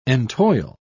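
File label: "entoil", English, British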